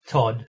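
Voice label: "tod" Proto-Indo-European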